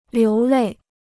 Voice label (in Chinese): "流泪", Chinese